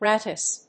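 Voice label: "Rattus", English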